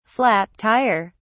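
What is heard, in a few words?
"flat tire", British English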